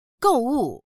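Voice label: "gou4wu4", Mandarin Chinese; gòuwù; 购物; 買い物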